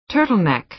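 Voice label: "turtleneck", American English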